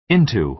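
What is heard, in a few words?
"into", American English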